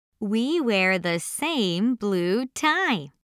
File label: Read